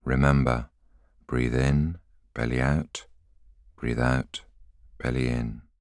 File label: voiceover